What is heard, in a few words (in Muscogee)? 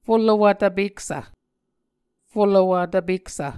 "folowvtvpeksv", Muscogee